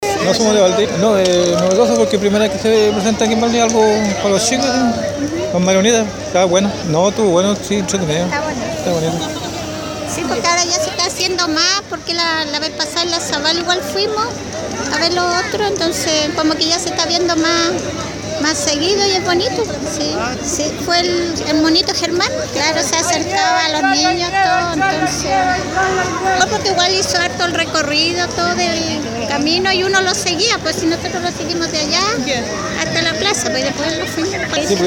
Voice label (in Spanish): Cuña publico